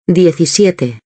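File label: "diecisiete", Spanish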